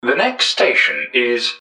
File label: next, english man, subway announcers; MetrostroiAddon